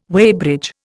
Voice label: "weighbridge", Indian